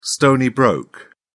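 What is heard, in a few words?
"stony-broke", English, British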